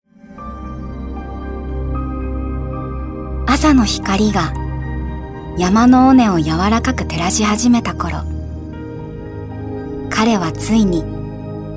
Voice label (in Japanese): モノローグ 静か